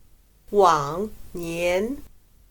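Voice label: "往年", Chinese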